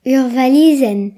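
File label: "suitcase", Breton; gcompris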